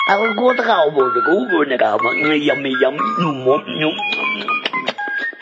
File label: ahgoodhow agoodnahow amea mea smack smack smack, gibbrish; Voice